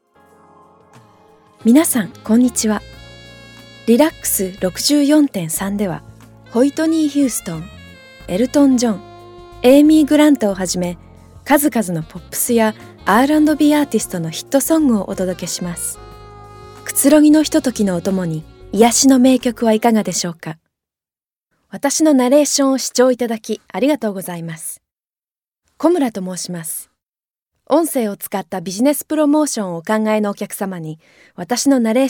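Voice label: Japanese, female, commercial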